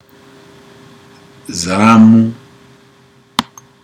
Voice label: shona breasts female

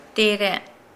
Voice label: Nukuoro; over there

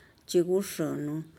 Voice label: chiguxuunu we are going to eat lunch

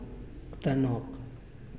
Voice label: One, Muyuw; Lae Unitech

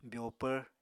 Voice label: "byoopvr", Apatani

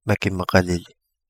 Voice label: have fever, Kapingamarangi

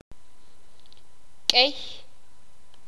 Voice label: "bastante", sakapulteko

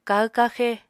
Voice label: "kahw-kaxe", Apatani

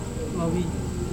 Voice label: "vavin", woman, Serewen

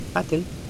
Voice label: "paddle", Mokilese

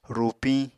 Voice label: "rupiñ", Apatani

